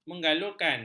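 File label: "menggalurkan", Malay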